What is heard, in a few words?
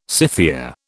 "Scythia", British